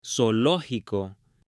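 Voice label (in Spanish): "ZOOLOGICO", Spanish, male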